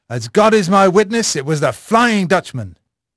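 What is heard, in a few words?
English male; Pirates Of The Caribbean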